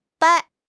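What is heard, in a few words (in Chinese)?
"掰", Chinese; HSK6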